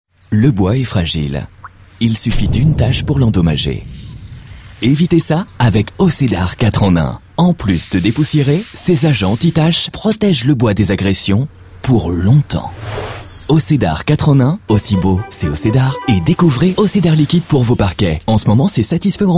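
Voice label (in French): frais tonique, Comédien voix off, publicité; O-Cedar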